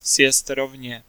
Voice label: Ah Exit, Czech male

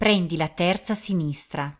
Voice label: Italian, female; 3rd Left

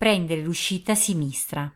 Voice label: Italian, female

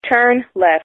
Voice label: Turn Left, American English, female

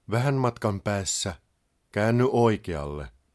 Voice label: Finnish, male; Opas; Ah Right Turn

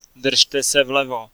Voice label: Bear Left, Czech, male

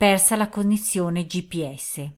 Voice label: Italian, female

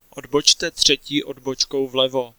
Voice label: 3rd Left, Czech, male

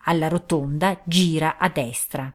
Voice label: Italian, female; Rb Right

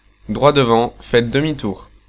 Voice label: U Turn, French, male

